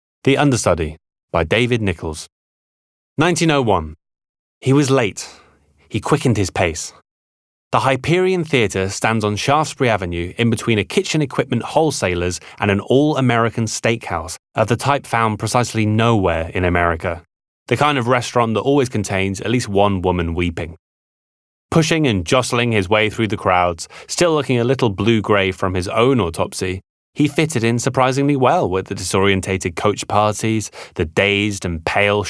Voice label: audiobook; THE UNDERSTUDY BY DAVID NICHOLLS